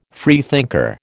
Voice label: "freethinker", American

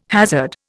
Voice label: "hazard", Indian

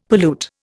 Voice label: "pollute", Indian; Dictionary

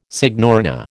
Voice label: "signorina", American

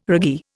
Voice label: "raggee", Indian

